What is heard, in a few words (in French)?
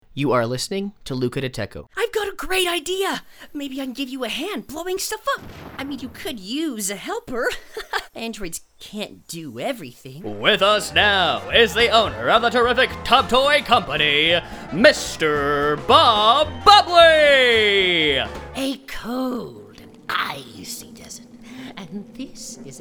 Démo anglais